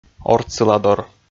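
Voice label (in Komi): "ортсыладор", Komi, male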